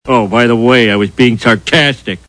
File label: sarcastic